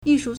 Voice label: "yi4 shu4", Chinese

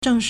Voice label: "zheng4shi4", Chinese

